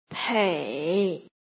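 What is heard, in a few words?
"pei", pinyin, third tone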